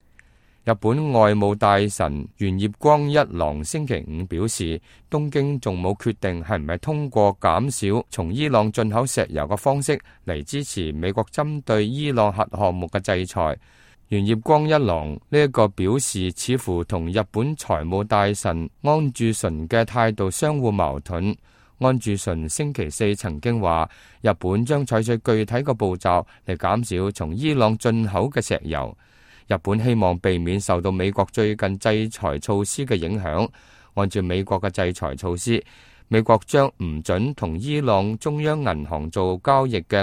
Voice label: cantonese, news